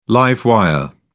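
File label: "live wire", British English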